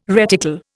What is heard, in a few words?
"reticle", Indian